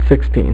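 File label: "sixteen", male; MacCribbage; InterStellar MacCribbage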